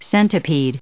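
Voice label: "centipedes", US English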